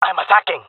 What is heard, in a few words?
attacking, jungle male; Counter Strike Model Pack; CS:GO